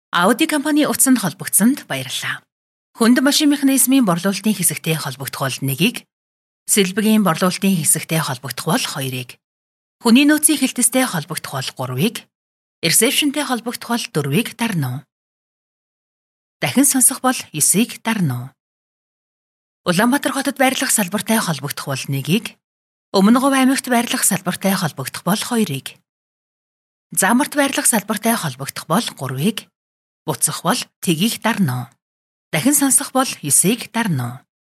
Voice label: Mongolian voice over